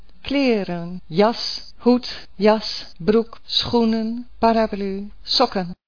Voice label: dutch clothes

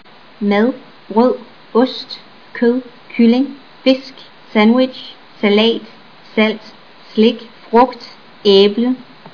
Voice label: danish; food

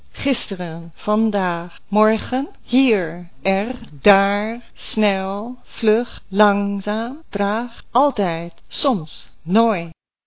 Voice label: dutch

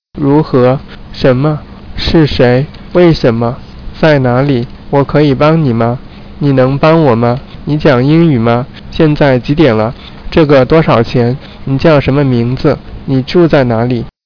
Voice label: chinese phrases

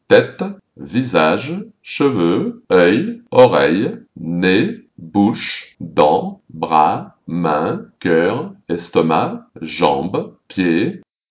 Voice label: french

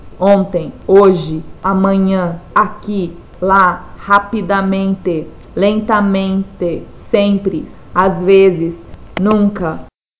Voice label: brazilian

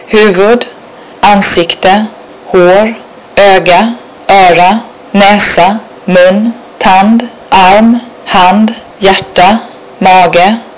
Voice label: swedish body

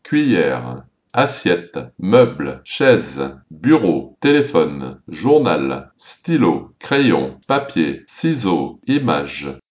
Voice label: french

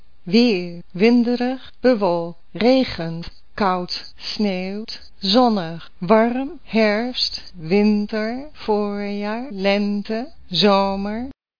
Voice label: dutch; weather

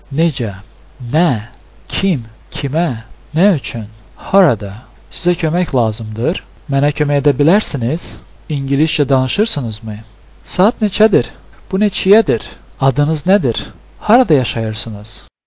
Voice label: azerbaijani; phrases

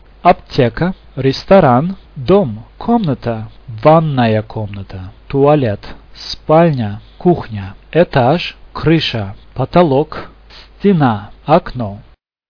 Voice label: russian places

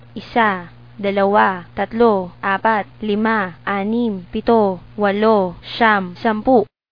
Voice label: filipino; numbers

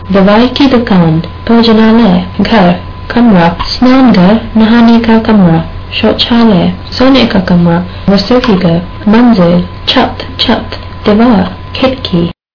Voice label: hindi places

